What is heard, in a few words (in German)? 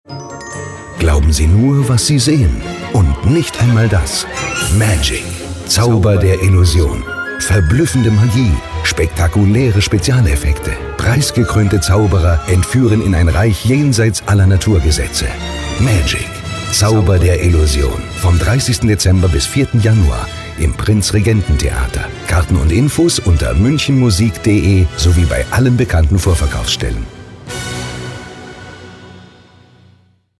Radiowerbung; Magic